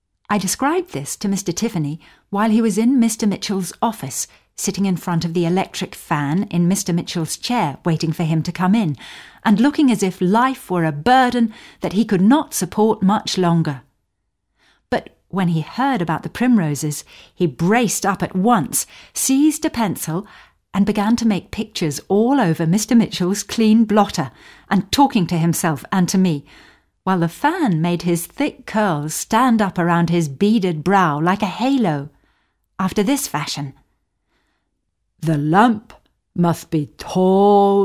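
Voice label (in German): British English, Schauspiel Neutral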